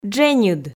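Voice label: "дженьыд", Komi, female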